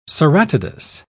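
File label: "ceratodus", British English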